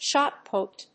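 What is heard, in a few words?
"shot put", English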